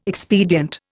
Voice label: "expedient", Indian